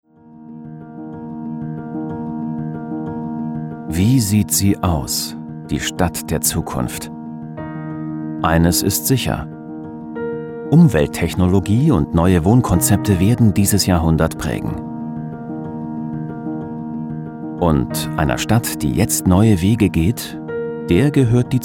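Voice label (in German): advert, Sanft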